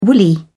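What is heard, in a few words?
"woolly", British English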